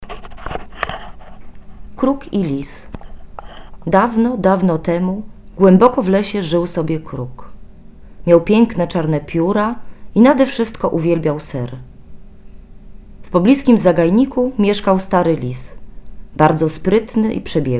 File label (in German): Poln Fabel